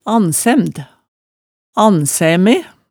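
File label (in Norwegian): "annsæmd, annsæme", Hemsedal dialect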